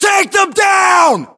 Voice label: order attack infantry, US